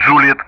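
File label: Speech Sound Russian Common Player Alphabet; Juliett-continue; DCS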